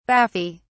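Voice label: "baffy", British English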